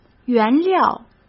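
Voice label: "原料", Mandarin Chinese; hsk; chinese-flashcards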